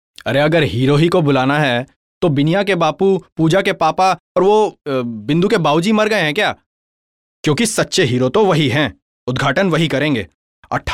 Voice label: hindi sample, male voice